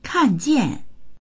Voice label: "kan4jian4"; 看见; 見える、目に入る